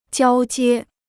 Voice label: "交接", Chinese

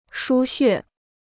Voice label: "输血", Chinese

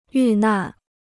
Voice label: "遇难", Chinese

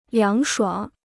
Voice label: "凉爽", Chinese

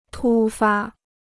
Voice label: "突发", Chinese

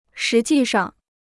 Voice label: "实际上", Chinese

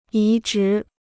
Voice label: "移植", Chinese

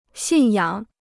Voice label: "信仰", Chinese

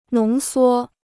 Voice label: "浓缩", Chinese

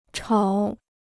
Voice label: "吵", Chinese

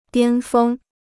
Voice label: "巅峰", Chinese

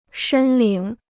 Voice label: "申领", Chinese

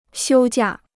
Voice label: "休假", Chinese

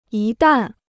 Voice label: "一旦", Chinese

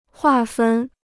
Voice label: "划分", Chinese

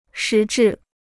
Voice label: "实质", Chinese

